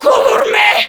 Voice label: Cover Me, Grineer Female; Warframe; Battle Barks